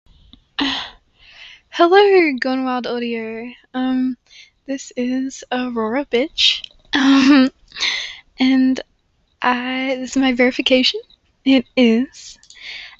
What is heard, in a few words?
Female Verification